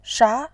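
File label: "sha", Mandarin Chinese, second tone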